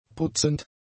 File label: "putzend", German; adjectives